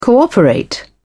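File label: "cooperate", British English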